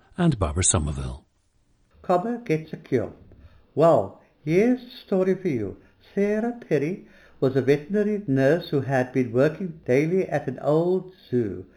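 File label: south africa, dialects of English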